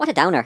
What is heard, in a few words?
"bummer", Irish; Worms Armageddon